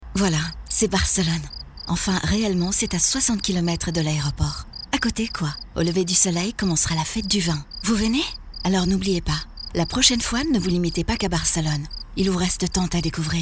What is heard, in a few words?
female french comercial; barcelona; ideasonora